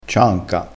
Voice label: "cianca", crespinese

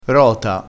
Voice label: "rota", crespinese, vocabolario dialettale

